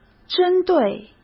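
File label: "针对", Mandarin Chinese; chinese-flashcards; hsk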